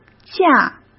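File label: "架", Mandarin Chinese; hsk; chinese-flashcards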